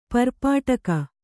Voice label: "ಪರ್ಪಾಟಕ", Kannada